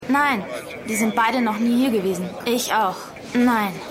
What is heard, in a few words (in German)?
Kellnerin, Stimmproben